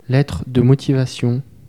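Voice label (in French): "lettre de motivation", French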